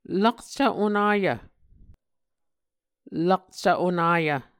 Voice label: "lakcv onayv", Muscogee